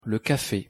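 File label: pronunciation fr "le café", French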